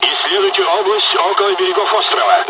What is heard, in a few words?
mission fly, dialogs russian; War Thunder